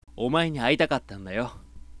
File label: sample sweet mid, voice